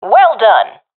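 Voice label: sees enemy killed, professional female; vo; agents; Source Model Packs; Counter Strike Model Pack; CS2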